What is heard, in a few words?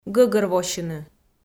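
"гӧгӧрвосьыны", Komi, female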